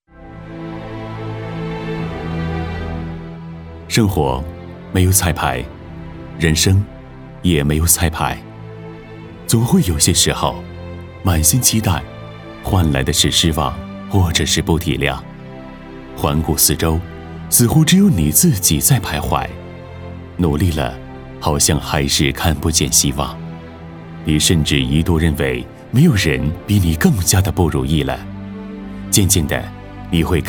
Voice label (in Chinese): 男 旁白; 生活没有彩排-人生也没有